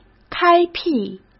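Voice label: "开辟", Mandarin Chinese; hsk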